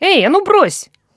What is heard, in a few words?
drop weapon, human woman threat; Stalker Anomaly